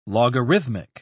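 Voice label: "logarithmic", British English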